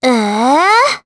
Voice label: Vox Deny jp; Cleo; kingsraid

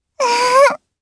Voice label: Happy, jp; Lavril; kingsraid

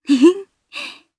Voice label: Happy, jp; Nia; kingsraid

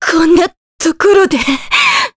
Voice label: Vox Dead, jp; Maria; kings raid

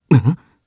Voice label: Vox jp; Fluss; kingsraid

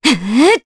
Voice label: Casting, Jp; Scarlet; kingsraid; voices heroes

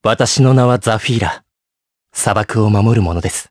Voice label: vox, jp; Zafir; kingsraid

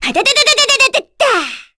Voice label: Vox Skill, kr; Cecilia; kingsraid

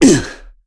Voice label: Vox Damage, en; KaselB; kingsraid

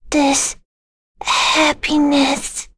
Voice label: Vox Victory, en; Jane; kingsraid; voices heroes en

